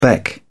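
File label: "beck", British English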